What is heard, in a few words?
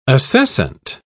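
"acescent", British English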